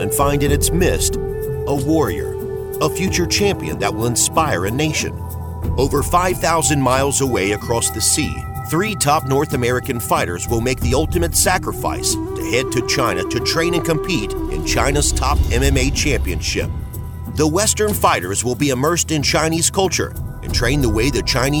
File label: Conversational male voice, Documentary, Warm, Honest Voice With A Down-To-Earth, Country Feel; Warm, Honest Voice With A Down-To-Earth, Country Feel, Ideal For Documentari; Warrior TV Narration